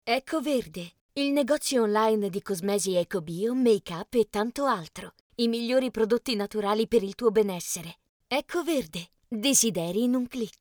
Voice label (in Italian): spot